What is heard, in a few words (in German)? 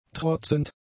"trotzend", German; adjectives